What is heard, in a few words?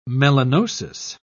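"melanosis", British English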